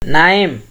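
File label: "naim", Nigerian Pidgin, male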